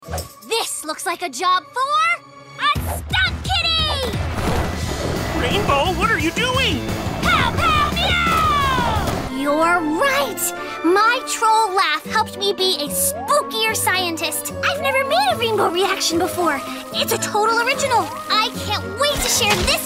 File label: Animation Reel